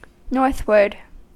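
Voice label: "northward", US English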